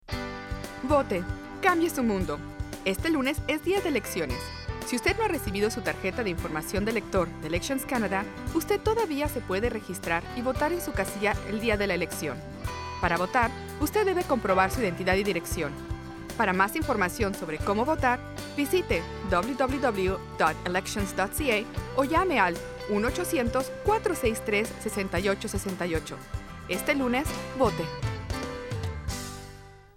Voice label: election spanish